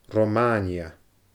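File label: "Romaainia", Scottish Gaelic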